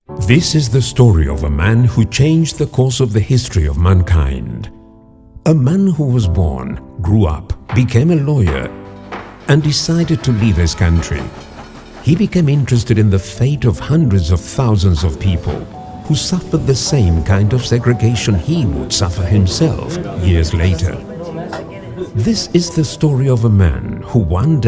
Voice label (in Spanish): Snickers Hombre Ingles; BBDO